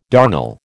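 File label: "darnel", American English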